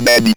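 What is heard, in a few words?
ROBVOX, robot voice; E-Lab; X Static Goldmine 1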